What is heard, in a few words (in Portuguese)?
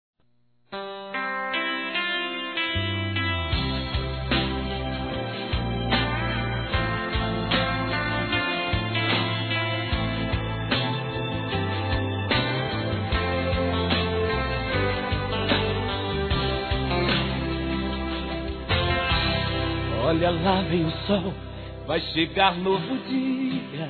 Aniversário Sertanejo Namoro Masculino; ANIVERSARIO romantico